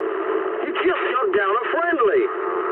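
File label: English player killed ally mood med; speech; War Thunder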